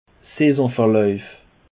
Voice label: "saisonverlauf", Alsace